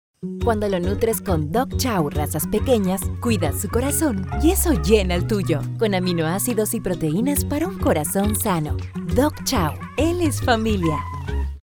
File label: spanish latin american promos; Buenos Aires, Argentina; Promoções; dubladora